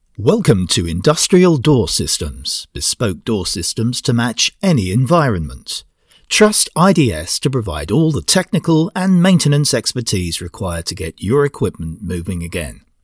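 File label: British English, male, IVR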